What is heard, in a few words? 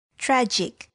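"tragic", English word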